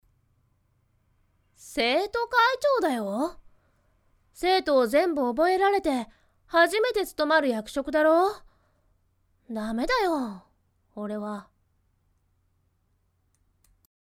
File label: boy